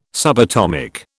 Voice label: "subatomic", British